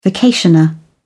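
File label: "vacationer", British English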